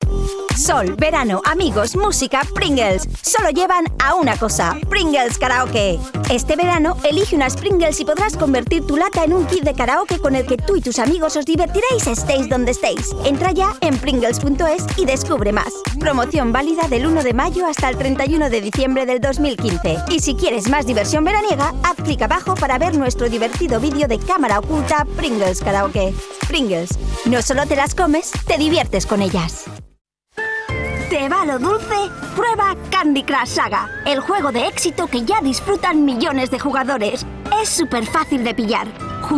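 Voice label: European Spanish, Commercial; GoLocalise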